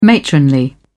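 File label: "matronly", British English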